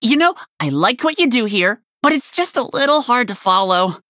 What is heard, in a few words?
Warrior Nonbinary Night Elf